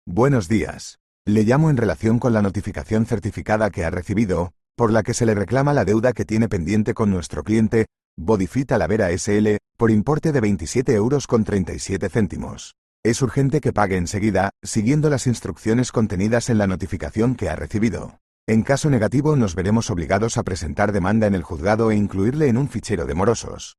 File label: audio call